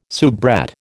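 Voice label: "soubrette", American English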